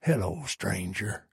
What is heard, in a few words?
trader male like greeting